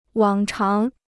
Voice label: "往常", Chinese